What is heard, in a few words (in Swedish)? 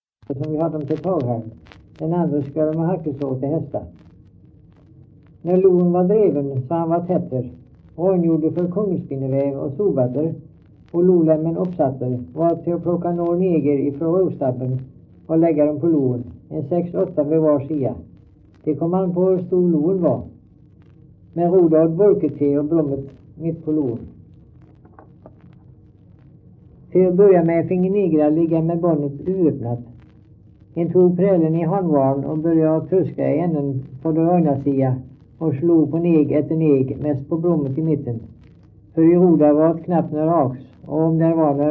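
Stamnared Halland, dialekter; Folkminnen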